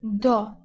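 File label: "do", Proto-Indo-European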